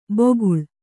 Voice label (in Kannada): "ಬೊಗುಳ್", Kannada